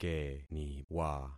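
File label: "keniwa", concatenated syllables; Affixing experiment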